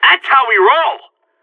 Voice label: on a roll brag, player vo seal; Counter Strike; CS:GO